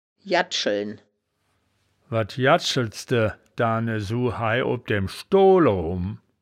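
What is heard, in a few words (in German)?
"jaddscheln", wittlicher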